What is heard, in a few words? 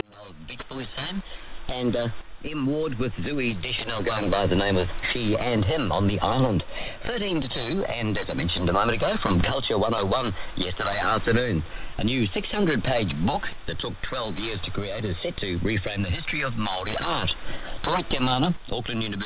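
Russian Propaganda Station? Russian AM; 14109,0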